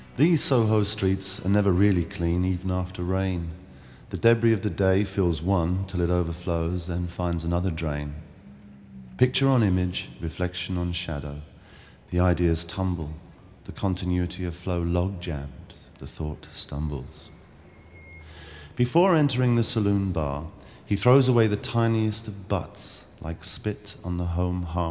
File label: narration